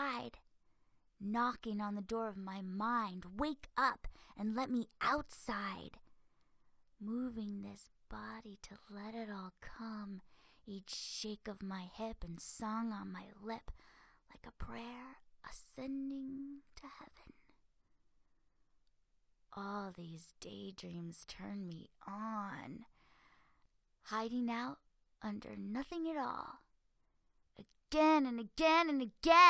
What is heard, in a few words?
conversation